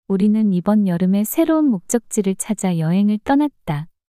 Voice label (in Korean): 우리는 이번 여름에 새로운 목적지를 찾아 여행을 떠났다 문장 여자